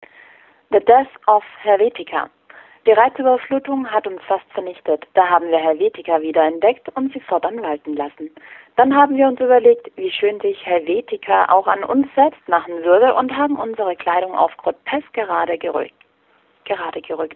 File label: Voicemail